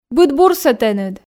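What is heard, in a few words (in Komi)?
быд бурсӧ тэныд, Komi, female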